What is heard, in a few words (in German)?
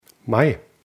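"mai", German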